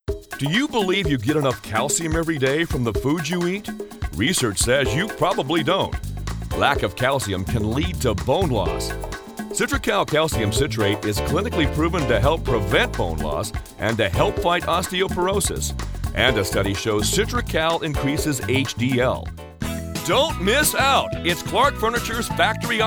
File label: male English